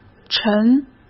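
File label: "沉", Mandarin Chinese; hsk; chinese-flashcards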